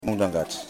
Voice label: "Catfishes", Yimas